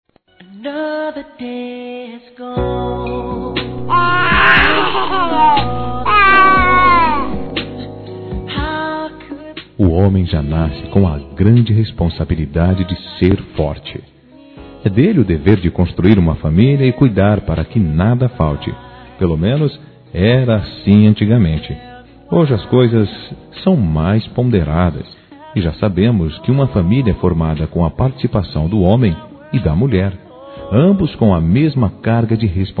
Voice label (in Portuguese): Parabéns Papai Masculino; Telemensagens; Felicitações; Telemensagens 2017